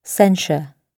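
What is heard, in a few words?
"censure", English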